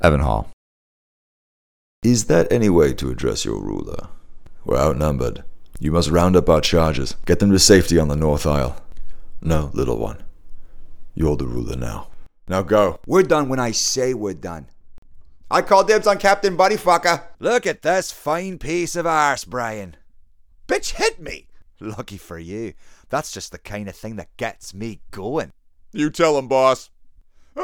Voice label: animation demo